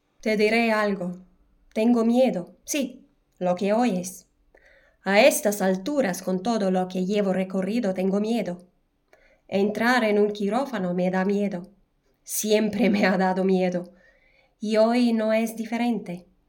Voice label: Spanish language

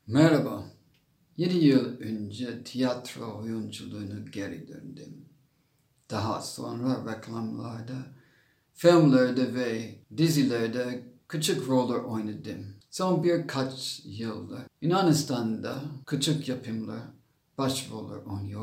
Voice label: Turkish flat

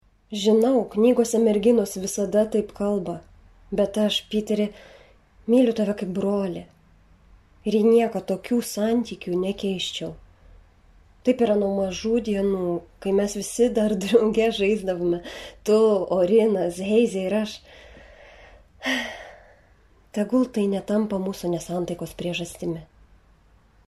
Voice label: LITHUANIAN

